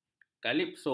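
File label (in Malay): "kalipso", Malay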